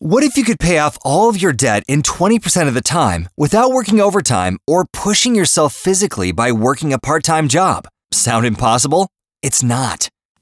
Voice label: american male

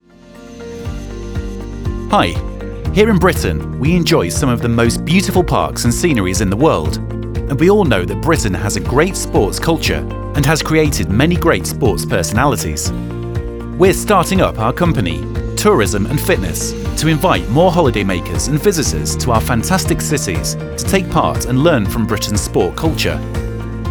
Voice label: british male; dictor

